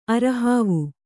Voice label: "ಅರೆಹಾವು", Kannada